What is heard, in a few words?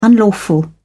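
"unlawful", English, British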